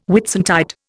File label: "Whitsuntide", Indian